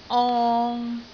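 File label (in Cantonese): "ong3", Cantonese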